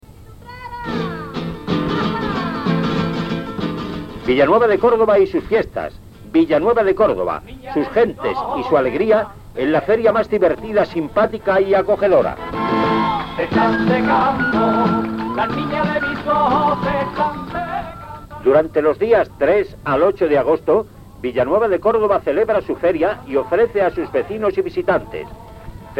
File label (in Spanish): Cuña de radio; La Voz de los Pedroches; publicitando la Feria y el Museo en 1980; cordobapedia